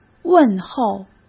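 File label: "问候", Mandarin Chinese; chinese flashcards; hsk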